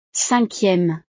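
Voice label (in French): "cinquieme", French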